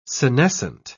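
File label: "senescent", English, British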